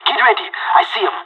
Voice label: radio enemy spotted, pirate; Counter Strike Model Pack; CS:GO

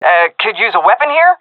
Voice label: request weapon, vo fem; agents; Source Model Packs; Counter Strike Model Pack; CS2

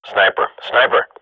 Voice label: radio bot under fire sniper, swat; Counter Strike Model Pack; CS:GO

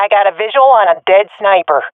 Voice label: sees sniper killed, swat fem; vo; agents; Source Model Packs; Counter Strike Model Pack; CS2

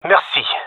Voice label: thankful, male; vo

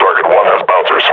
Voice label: sees grenades, combine grunt

